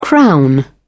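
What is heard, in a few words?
"crown", British English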